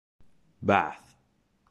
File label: "bath", English